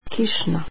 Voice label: rainy, croatian

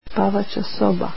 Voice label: "bedroom" croatian

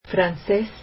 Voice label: French

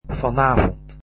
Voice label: "tonight", Dutch

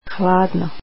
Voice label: "cold" croatian

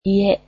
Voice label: house, japanese

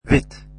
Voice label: "white", Dutch

